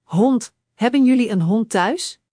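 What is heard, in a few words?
"hond", Dutch; Spelling Gr4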